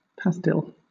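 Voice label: "pastille", British English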